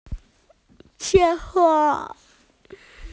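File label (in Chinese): "qing1wa1", Chinese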